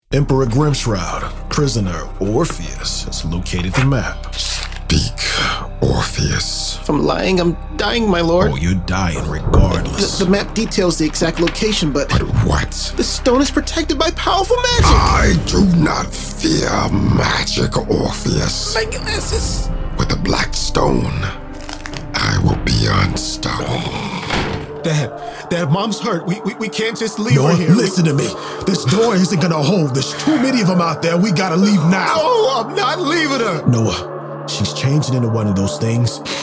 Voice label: English animation